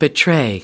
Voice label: "betray", us